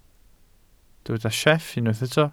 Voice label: "sieff", Welsh